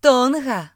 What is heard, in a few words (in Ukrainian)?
"tonga", uk; gcompris; geography